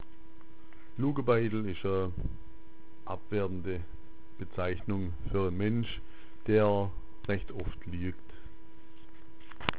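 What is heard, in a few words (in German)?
lugabeidl, schwäbisch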